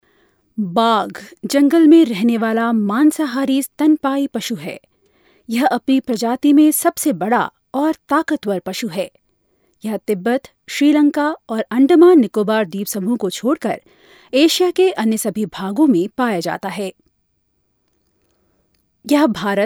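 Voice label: NARRATION hindi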